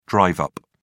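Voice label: "drive up", British English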